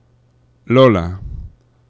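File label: "lola", Spanish; laboratori fonetica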